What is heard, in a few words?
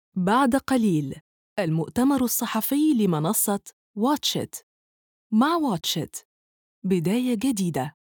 arabic